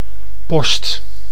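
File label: "post", Dutch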